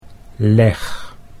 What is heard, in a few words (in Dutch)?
"leg", Dutch